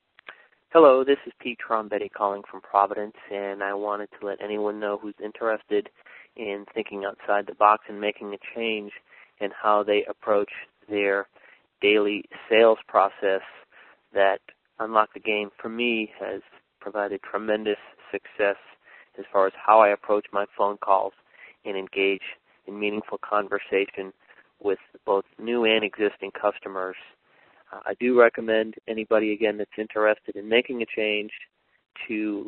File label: Testimonial